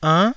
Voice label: "ann9", taiwanese